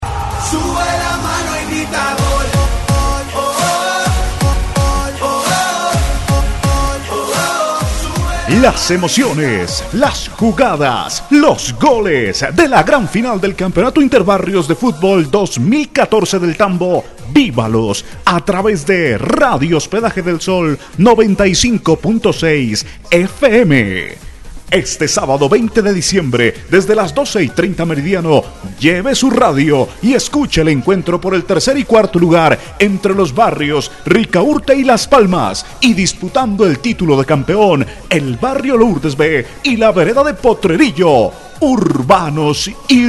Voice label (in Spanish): promo futbol